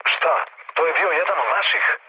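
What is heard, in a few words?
Serbian player killed ally mood low; War Thunder; speech srb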